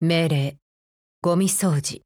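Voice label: Japanese; AK15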